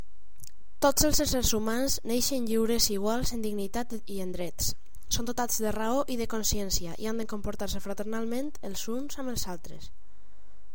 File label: Valencian